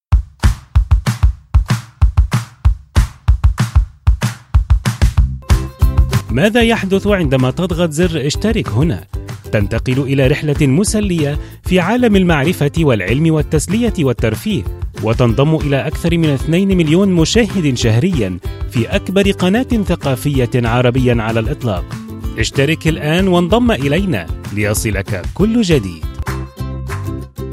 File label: advertising